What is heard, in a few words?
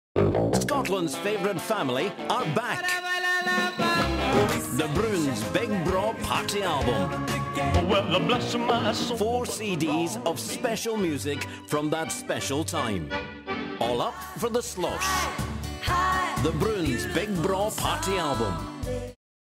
TV Commercial